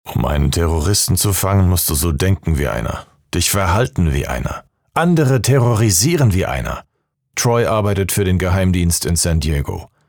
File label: german movie trailers